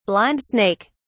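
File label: "blind snake", British English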